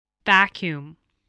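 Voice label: "vacuum", English word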